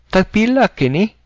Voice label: how much, cebuano